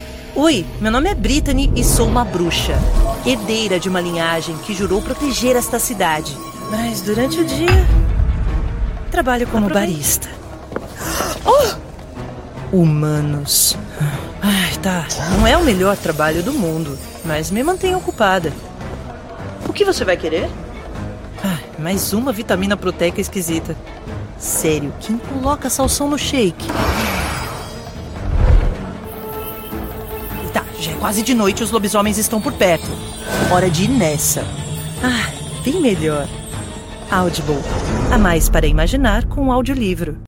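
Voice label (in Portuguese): Brazilian Portuguese, Narration; voice demos; GoLocalise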